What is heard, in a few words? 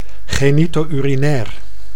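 "genito-urinair", Dutch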